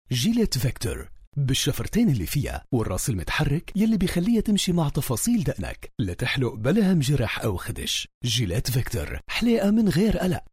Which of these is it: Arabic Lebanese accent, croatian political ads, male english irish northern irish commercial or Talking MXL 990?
Arabic Lebanese accent